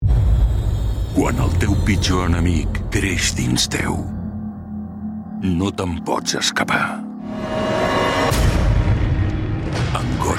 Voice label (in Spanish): Catalán; Campañas Fad